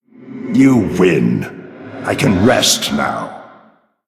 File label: male night elf, Concede